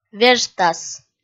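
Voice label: "вежтас", Komi, female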